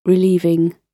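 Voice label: "relieving", British English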